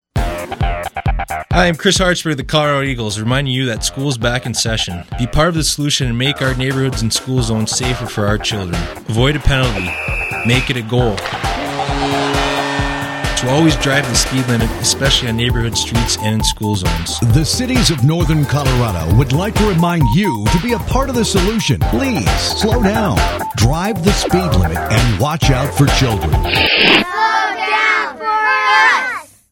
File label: hockey radio ad